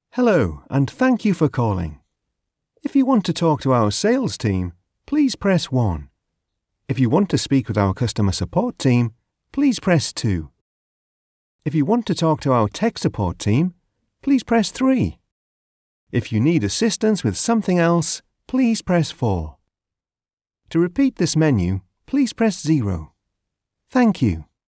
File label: teesside voiceover telephone